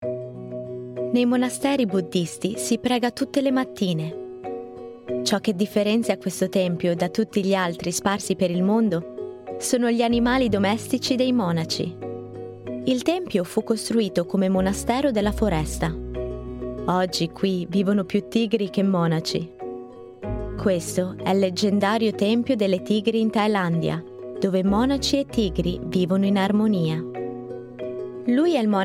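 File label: Italian, Documentary; GoLocalise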